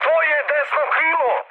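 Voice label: Serbian right wing mood high; War Thunder; speech srb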